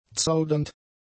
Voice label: "zaudernd", German; adjectives